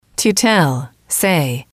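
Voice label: "to tell say", English, female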